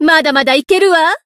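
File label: Voice growth Japanese; sherinu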